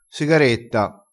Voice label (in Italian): "sigaretta", crespinese